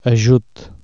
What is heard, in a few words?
help, Catalan Consonants